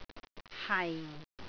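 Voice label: "hai4", Cantonese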